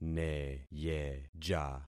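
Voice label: "neyeja", concatenated syllables; Affixing experiment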